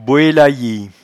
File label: "boelayie", patois jura